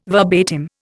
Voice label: "verbatim", Indian